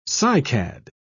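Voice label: "cycad", British English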